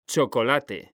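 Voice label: "chocolate", Spanish, male; mekanta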